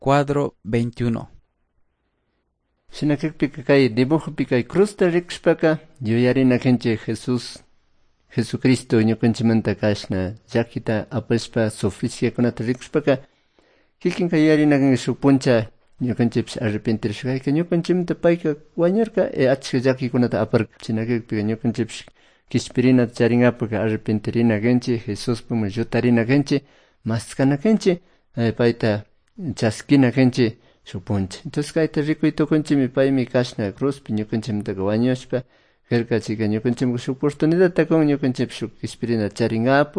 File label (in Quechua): good news quichua cayambe